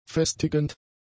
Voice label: "festigend", german; adjectives